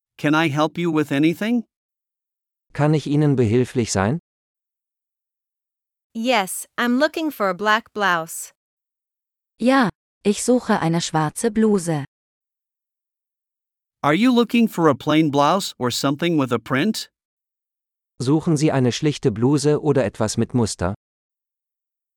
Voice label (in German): Dialog mit Uebersetzungen; Kleidung einkaufen